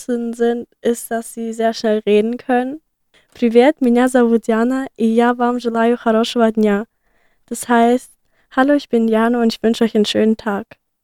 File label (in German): Russisch